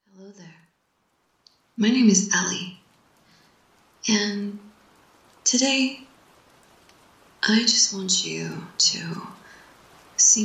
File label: Hypnotic, quiet voice, rain sounds, F4M; Hypnotic JOI; Improv; hypnosis; attah boy; touch yourself for me; spit; fantasize